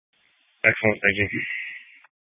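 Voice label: Incident Tactical 1, Scanner audio recording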